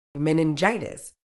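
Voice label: "meningitis", British English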